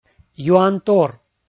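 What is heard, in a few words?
"юантор", Komi, male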